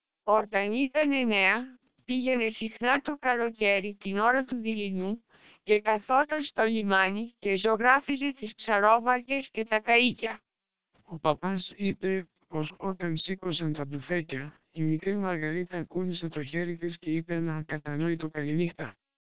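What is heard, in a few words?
SPR 1200 short, Greek speech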